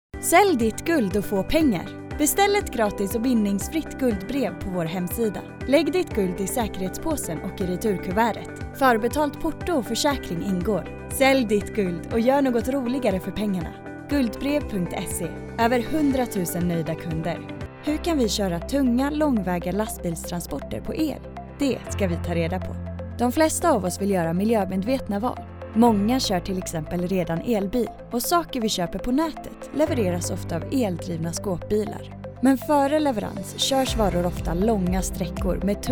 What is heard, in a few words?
Swedish Reel